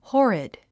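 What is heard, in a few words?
"horrid", English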